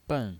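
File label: "ben", Mandarin Chinese, fourth tone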